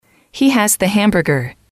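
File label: "he has the hamburger", English, female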